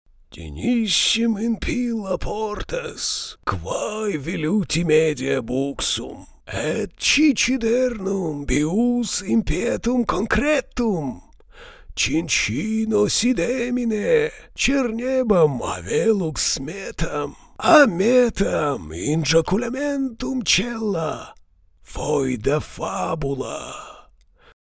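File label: parody old man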